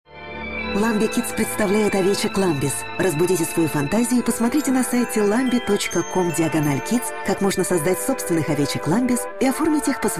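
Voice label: Russian General; GoLocalise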